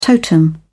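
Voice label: "totem", English, British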